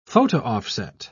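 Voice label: "photo-offset", British English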